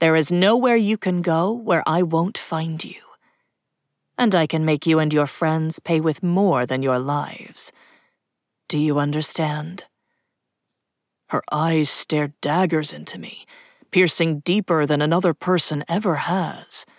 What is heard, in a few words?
Fantasy Female Narrating Male POV; audiobook